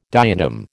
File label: "diatom", American